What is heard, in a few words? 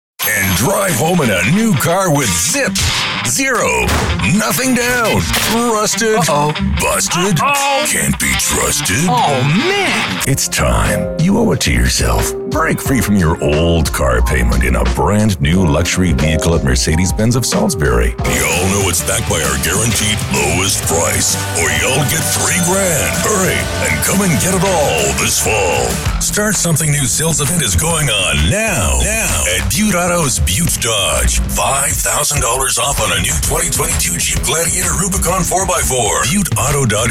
English American automotive